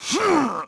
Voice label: EFFORT; NIXON; Pulse Racer